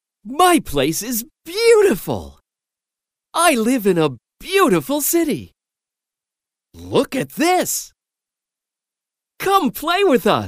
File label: US male children